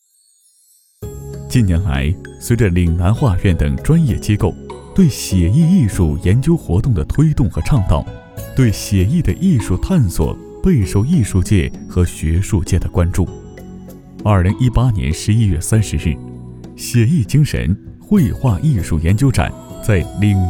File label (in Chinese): 男,专题,写意艺术,温暖亲切